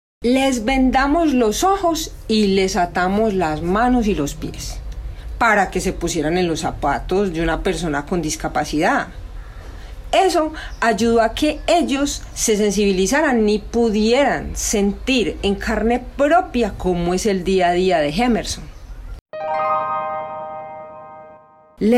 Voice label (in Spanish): Mujer Adulta; casting trineo tv